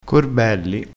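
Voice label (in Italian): "corbelli", dialetto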